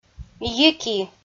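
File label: "йики" Komi, female; audio dict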